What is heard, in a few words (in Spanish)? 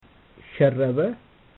"sharraba", amharico